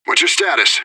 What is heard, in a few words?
radio bot report; fbihrt